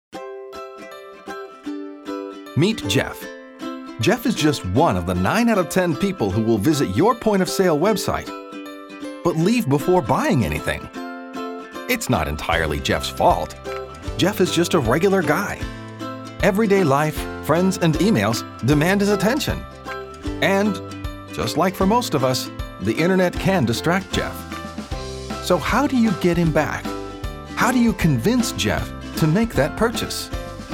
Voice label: Explainer Video